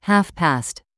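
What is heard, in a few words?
"half past", English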